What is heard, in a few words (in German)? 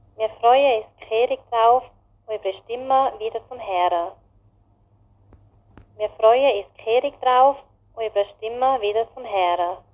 Mundart; tannheimertal